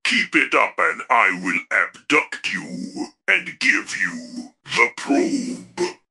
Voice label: upset; fertig classic games